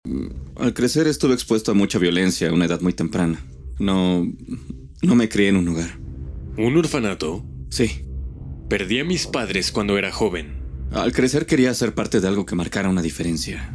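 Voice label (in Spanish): doblaje, Mexico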